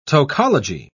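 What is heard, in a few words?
"tocology", British English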